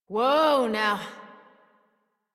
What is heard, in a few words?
Horse Stop Walk Adult; Warframe; Duviri